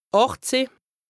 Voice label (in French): "ààchtzëh", lexique indispensable haut rhin, Alsace; 18